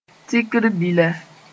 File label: "tikr bila", Moore; unit